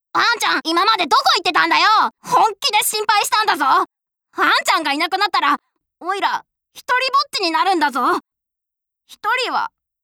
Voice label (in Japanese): 少年（ダミ声）